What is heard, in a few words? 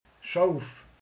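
"birka", Hungarian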